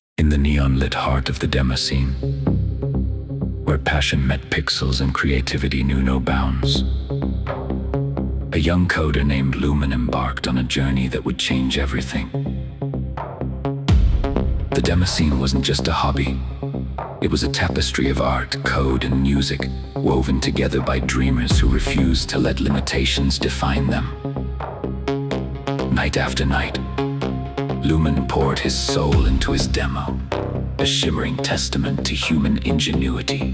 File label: azure audiobook